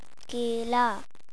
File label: banana, punjabi